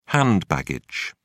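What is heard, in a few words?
"hand baggage", British English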